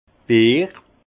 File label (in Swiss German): "beer", alsace; vin